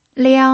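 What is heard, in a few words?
"liang", Mandarin Chinese pinyin, tone 1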